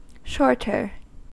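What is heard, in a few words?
"shorter", American English; pronunciations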